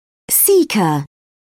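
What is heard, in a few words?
"caecum", British English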